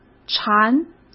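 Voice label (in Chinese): "馋", Mandarin Chinese; hsk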